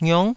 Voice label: "ngiong9", taiwanese syllables

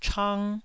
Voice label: "chang1", taiwanese

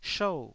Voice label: "shou4", taiwanese

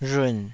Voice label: "rhun3", taiwanese

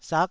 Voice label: "sak9", taiwanese syllable

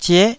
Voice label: "zie9", taiwanese

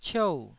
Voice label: "ciou4", taiwanese

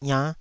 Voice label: "iannh5", Taiwanese syllable

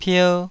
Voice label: "pier2", Taiwanese

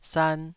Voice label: "san2", taiwanese

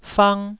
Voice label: "fang1", Taiwanese

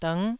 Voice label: "dng9", taiwanese syllable

